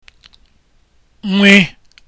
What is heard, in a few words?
nw letter, igbo; Nwa; Child